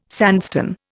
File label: "sandstone", Indian